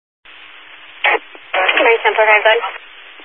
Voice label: Highway Patrol, Phoenix Metro East Area, scanner

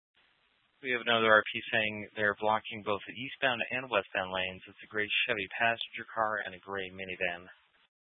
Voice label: Mesa PD Dispatch

